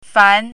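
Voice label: "fan2", Mandarin Chinese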